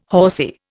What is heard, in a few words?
"horsy", Indian